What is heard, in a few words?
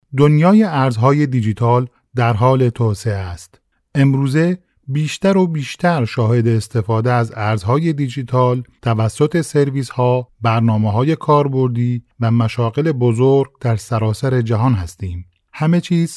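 Farsi Explainer, Male, Adult, Farsi (Persian)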